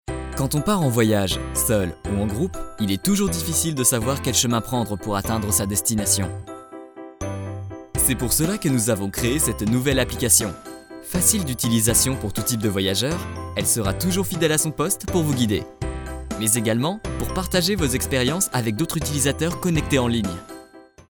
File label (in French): voix off appli